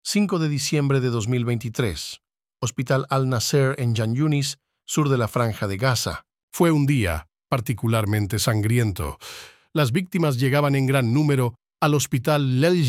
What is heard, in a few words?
voice over